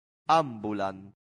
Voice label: "ambulant", Latin; santillana

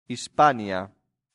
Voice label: "hispania", latin; santillana

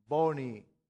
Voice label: "boni", latin; santillana

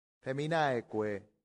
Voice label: "feminaeque", Latin; santillana

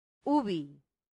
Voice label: "ubi", latin; santillana

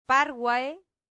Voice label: "parvae", Latin; santillana

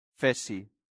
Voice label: "fessi", latin; santillana

